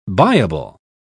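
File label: "buyable", English, British English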